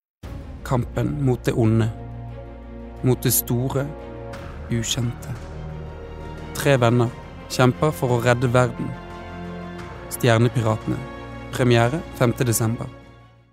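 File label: no movie trailer, Norwegian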